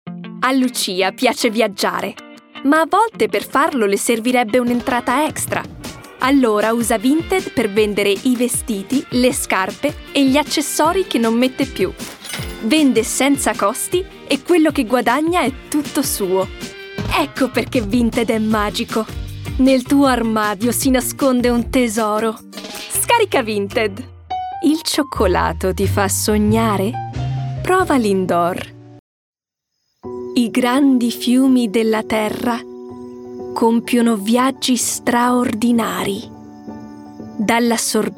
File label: Italian, Female, 20s-30s; European; Spanish; voiceover